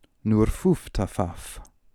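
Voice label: "Noorfooftafaf", pronunciations; Fourth Way; Beelzebub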